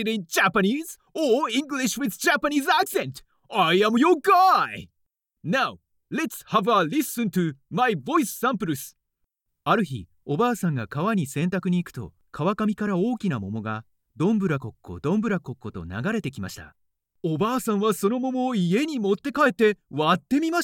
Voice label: Voiceover